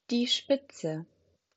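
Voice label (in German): "Spitze", German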